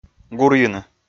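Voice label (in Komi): "гурйыны", Komi, male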